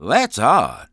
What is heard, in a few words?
detect telltale, English Voice